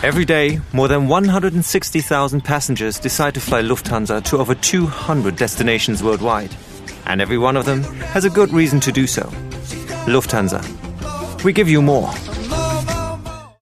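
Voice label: German English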